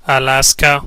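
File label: alaska, Spanish; gcompris-data; geography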